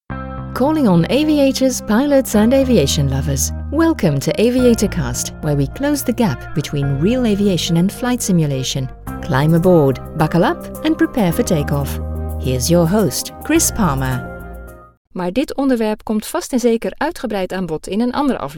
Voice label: PODCAST ENGLISH FR NL